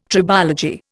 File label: "tribology", Indian